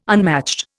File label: "unmatched", Indian